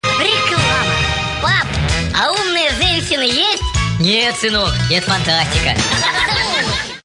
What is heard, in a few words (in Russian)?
Reklama